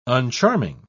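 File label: "uncharming", British English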